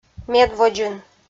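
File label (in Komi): "медводзын", Komi, female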